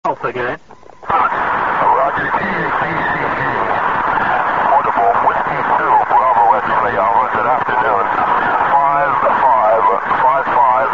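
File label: amateur radio contact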